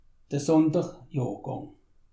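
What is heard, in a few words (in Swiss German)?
"sonder jorhgang", Alsatian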